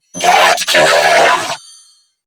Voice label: Attack Grineer Female; en; New War; Narmer Grineer